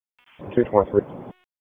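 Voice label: Police District Dispatch; wake; p25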